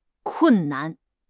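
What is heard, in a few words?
"kun4 nan5", Mandarin Chinese; HSK; HSK4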